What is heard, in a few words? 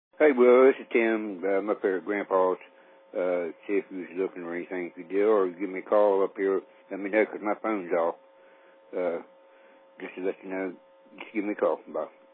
Voicemail